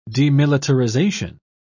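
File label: "demilitarisation", British English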